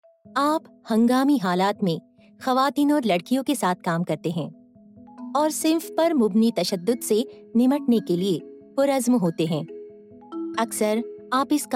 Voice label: Urdu Instructional Video